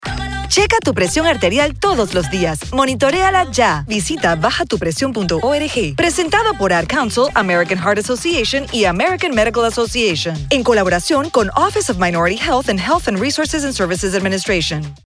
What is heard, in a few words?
Spanish Radio